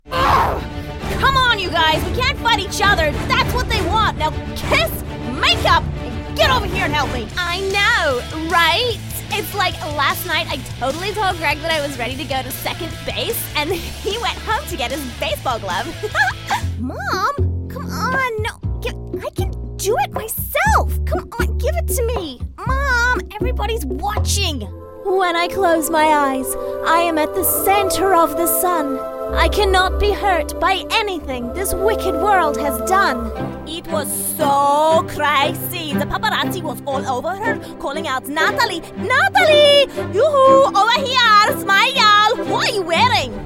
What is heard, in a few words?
Animation